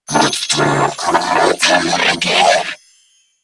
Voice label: Female, Grineer; New War; Narmer; Patrol